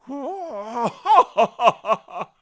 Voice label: Impressed; Orca impressed (laughing); Zelda

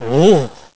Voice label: Man "Ohh"

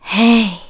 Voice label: Hey Soft

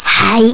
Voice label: Woman "Hi"; Woman (whispering): "Hi"

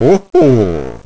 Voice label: Man Oh Ho; Man (deep voice): "Oh-ho."

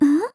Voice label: Curious; Zelda seeming curious; Skyward Sword

